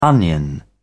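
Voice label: "onion", British English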